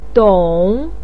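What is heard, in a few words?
"dong" third tone, Mandarin Chinese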